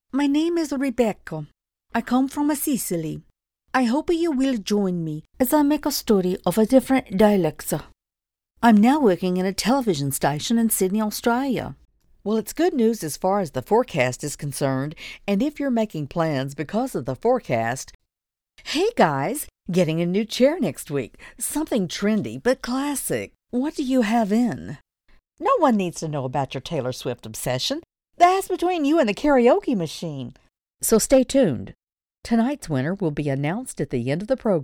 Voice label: conversational sample